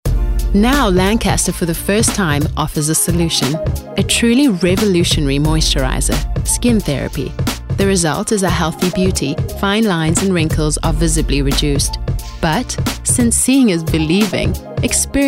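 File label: english South African female commercial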